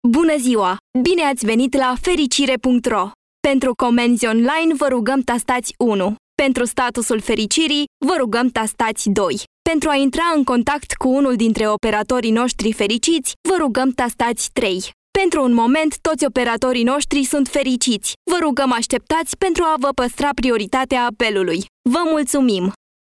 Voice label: romanian ivr